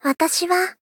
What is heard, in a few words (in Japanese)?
Polite Watashi wa; Rei Yumesaki